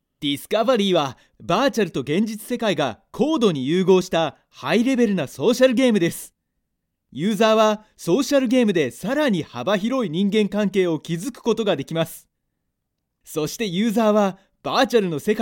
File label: Advertisement